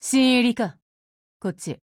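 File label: build over, Japanese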